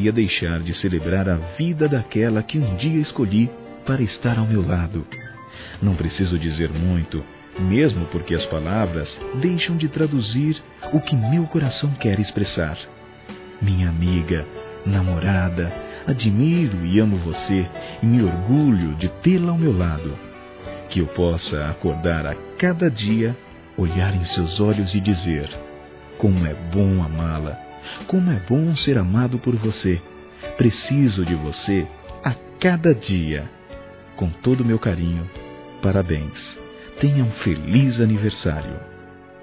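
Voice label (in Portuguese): Esposa Masculino; Aniversários; ANIVERSARIO romantico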